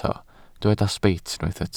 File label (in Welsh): "sbeit", Welsh